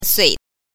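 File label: "sui", Mandarin Chinese pinyin, neutral tone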